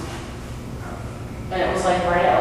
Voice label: male help me